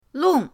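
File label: "long", Mandarin pinyin, fourth tone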